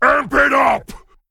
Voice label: Male Worgen Attack

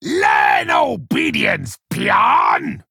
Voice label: Male Fel Orc

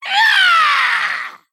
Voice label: Female Orc Death

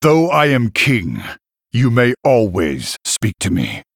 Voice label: Male Human; Varian; Emote Response

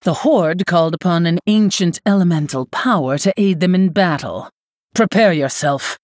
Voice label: Female Human; Lady Prestor

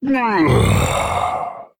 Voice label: Male Orc Death

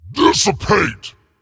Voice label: Demon Emote Attack; Waste Remover

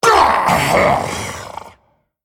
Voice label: Male Dragon Death

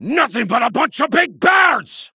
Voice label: Male Dwarf Play

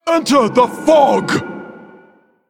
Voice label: Female Elemental Attack

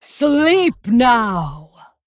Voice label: Female Dragon Attack

